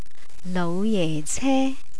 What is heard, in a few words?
"loujece", Cantonese